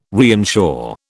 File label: "reinsure", British